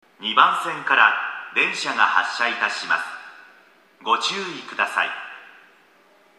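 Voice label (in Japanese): 駅放送, 2番線 （回送） 発車; 終列車までの夜間帯は2番線扱いの回送が多いようです。; toyo-katsutadai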